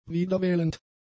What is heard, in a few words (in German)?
"wiederwählend", German; adjectives